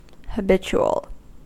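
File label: "habitual" US English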